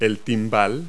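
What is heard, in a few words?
"type in", English words; Multi-Lingual Talking Picture Dictionary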